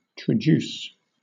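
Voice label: "traduce", British English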